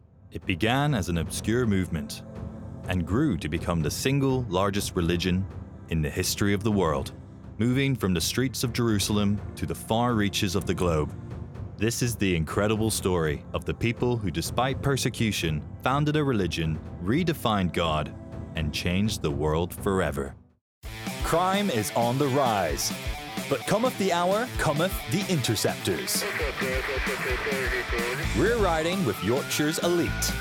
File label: Documentary